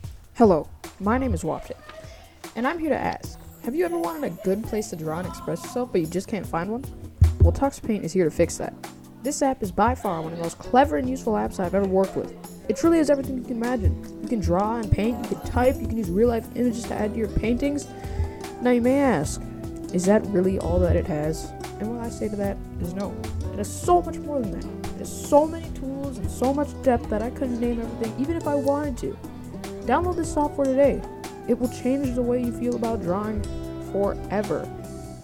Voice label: Radio Ad; Tux Paint; 7th grade radio ads